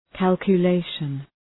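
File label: "calculation", English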